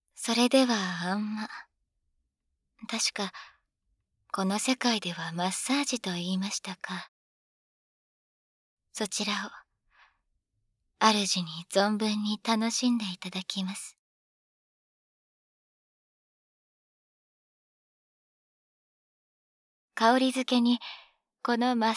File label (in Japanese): 声のみ, ASMR; 効果音なしver